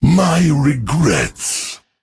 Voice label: Male Drust Emote Sorry